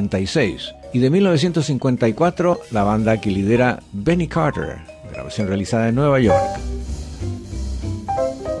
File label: promo jazz